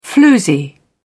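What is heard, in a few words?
"floozy", British English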